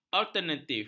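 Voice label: "alternatif", Malay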